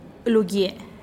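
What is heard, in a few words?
lugie mercado, Teotitlán del Valle Zapotec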